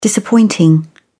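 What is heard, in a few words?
"disappointing", British English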